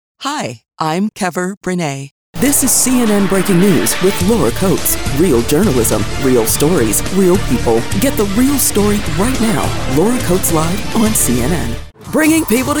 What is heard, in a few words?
Promo; Lead In For Website